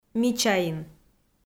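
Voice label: "мичаин" Komi, female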